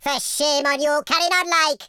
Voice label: boring, Welsh; speech